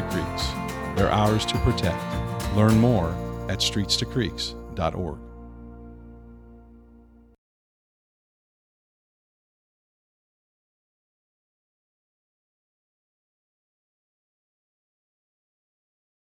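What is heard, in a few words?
SCOOP THE POOP voice over only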